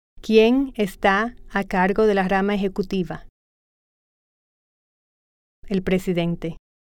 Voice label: Spanish